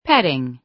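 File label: "petting", American English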